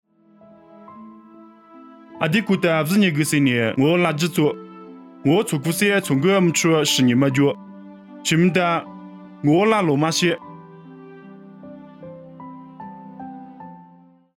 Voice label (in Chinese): 凉山彝语; 地中海罗马